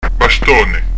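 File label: "bastone", Prononciation du corse; Son "appuyé"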